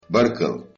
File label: thanks, Chechen